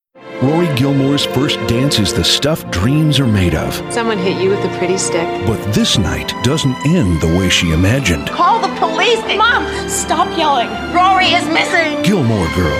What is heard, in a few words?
Male, promos